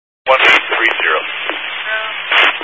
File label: Highway Patrol, scanner; DPS